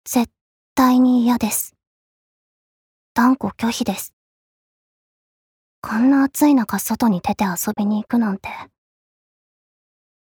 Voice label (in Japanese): アニメ